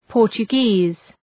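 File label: "Portuguese", English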